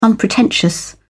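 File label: "unpretentious", British English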